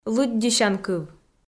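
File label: "лыддьысянкыв", Komi, female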